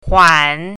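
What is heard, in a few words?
"huan3", Mandarin Chinese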